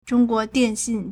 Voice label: "zhong1 guo2 dian4 xin4", Chinese